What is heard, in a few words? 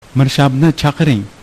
call the police, uzbek